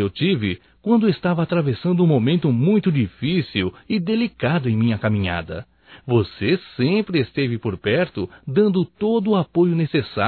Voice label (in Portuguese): Amizade Amigo Masculino; Otimismo; Telemensagens 2017